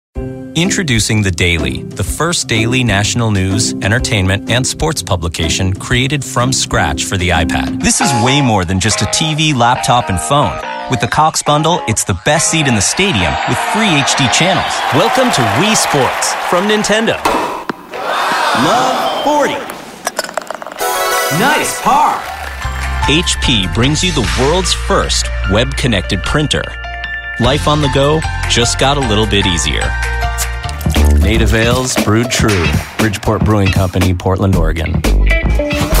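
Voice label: Commercial, real people male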